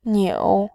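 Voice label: "niu", Mandarin, tone 3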